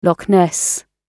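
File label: "loch ness", British English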